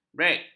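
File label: "brek", Malay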